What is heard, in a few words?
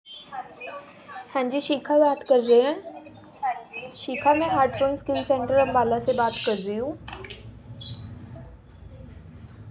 call recording